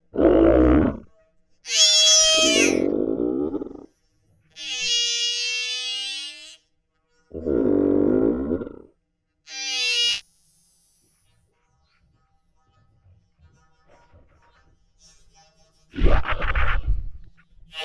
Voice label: Dybbuk Conversation, Vocals Humanoid; Sony Pictures Sound Effects Series Volumes 1-10; Sony Creative Software

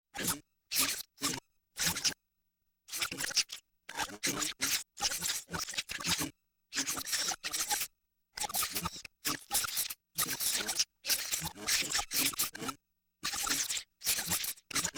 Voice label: The Hive, Vocals Humanoid; Sony Pictures Sound Effects Series Volumes 1-10; Sony Creative Software

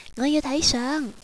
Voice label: Picture, Cantonese girl; ICQ

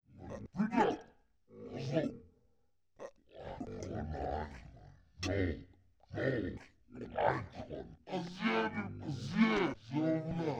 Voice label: Evil Underling, Vocals Humanoid; Sony Pictures Sound Effects Series Volumes 1-10; Sony Creative Software

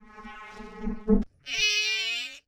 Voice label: Evil Telepathic Girl, Vocals Humanoid; Sony Creative Software

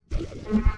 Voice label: Evil Telepathic Girl, Vocals Humanoid; Sony Creative Software

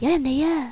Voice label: Global, Cantonese girl; ICQ

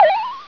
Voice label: Msg Bell, Cantonese girl; ICQ

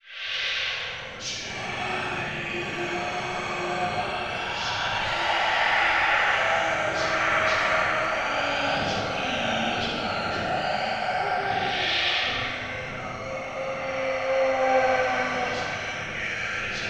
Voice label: Ghost Voice Processed; Sony Pictures Sound Effects Series Volumes 1-10; Sony Creative Software

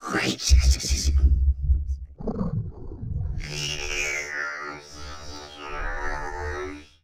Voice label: Dybbuk Conversation, Vocals Humanoid; Sony Pictures Sound Effects Series Volumes 1-10; Sony Creative Software

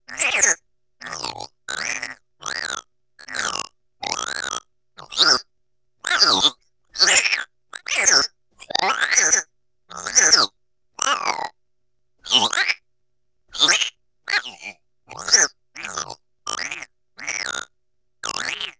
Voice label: Cybertronic Worm, Vocals Humanoid; Sony Pictures Sound Effects Series Volumes 1-10; Sony Creative Software

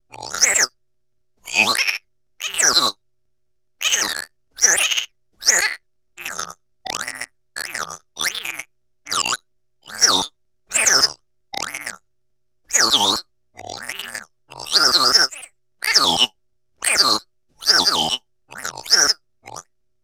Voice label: Cybertronic Worm, Vocals Humanoid; Sony Pictures Sound Effects Series Volumes 1-10; Sony Creative Software

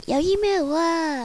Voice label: EMail, Cantonese girl; ICQ

